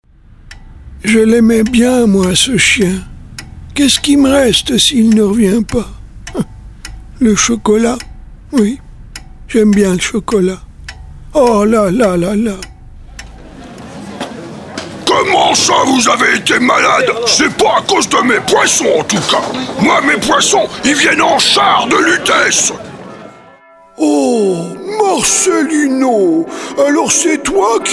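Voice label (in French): Dessins animés mélangés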